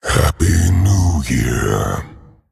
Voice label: Male Dragon Happy New Year Lunar